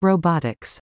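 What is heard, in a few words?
"robotics", English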